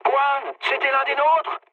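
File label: player killed ally, mood low, French; War Thunder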